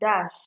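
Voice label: "dash", Nigerian Pidgin, female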